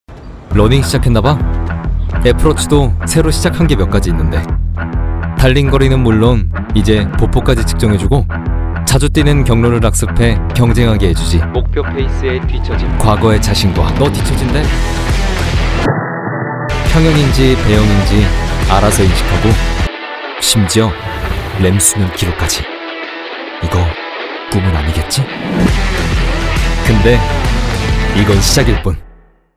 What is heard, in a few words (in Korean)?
광고 샘플 내츄럴 신뢰감; 애플워치